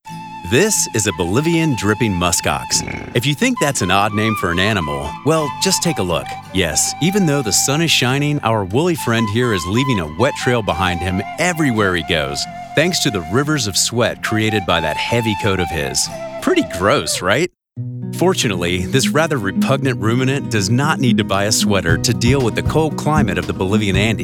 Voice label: Explainer Video